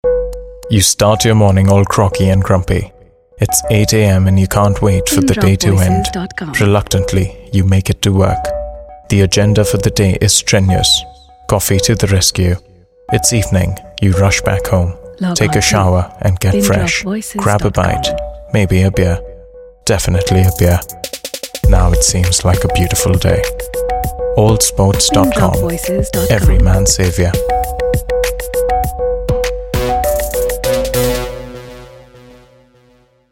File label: English